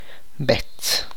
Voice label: "brother of a male", tlacochahuaya

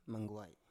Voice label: "manguai", Biak

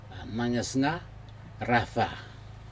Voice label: nagesega rafa, walking sun gods, Aneityum

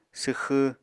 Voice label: "swxw", Apatani

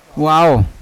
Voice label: "wao" turtle, Yawa

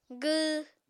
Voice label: "gww", Apatani

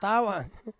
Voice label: "tawan" ko fruit, Ansus

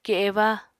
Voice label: "keba", Apatani

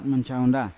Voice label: "maciaunda", Yawa; wallaby

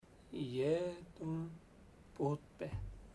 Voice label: I dont wash my head, chamacoco; Bahia Negra

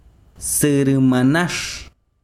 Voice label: besiro grande, chiquitano

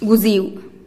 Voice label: "guziu", lightning

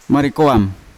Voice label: "marikoam" ears, Yawa

